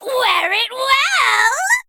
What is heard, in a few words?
Female Harpy Attack